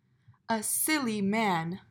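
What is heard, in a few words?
"a silly man", English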